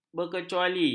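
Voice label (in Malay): "berkecuali", Malay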